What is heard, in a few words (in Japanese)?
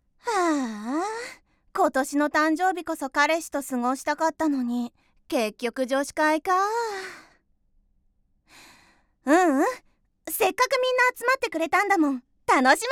セリフ1【女子高校生】; ◇出身地：長野県; キャナリープロダクション